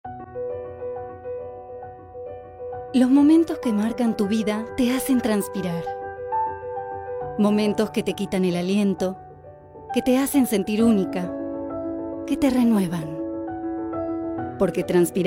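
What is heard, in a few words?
latam female commercial; voice demos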